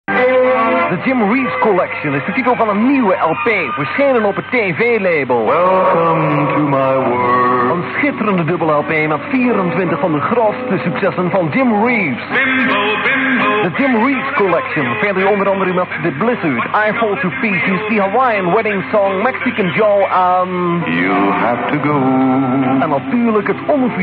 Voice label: commercial